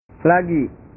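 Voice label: "lagi", Indonesian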